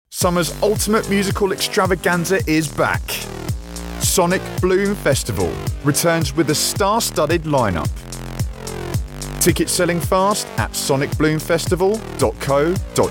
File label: London male events